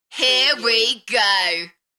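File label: British female Here we go Enthusiastic; beanstalk audio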